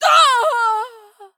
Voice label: Female Dwarf Death